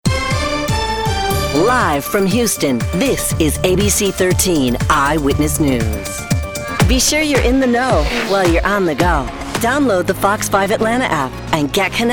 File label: tv affiliate women